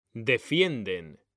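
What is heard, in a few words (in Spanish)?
"defienden", Spanish; mekanta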